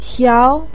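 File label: "qiao2", Mandarin pinyin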